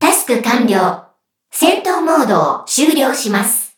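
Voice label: victory, Japanese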